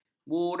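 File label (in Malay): "bura", Malay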